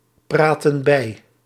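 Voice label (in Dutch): "praatten bij", Dutch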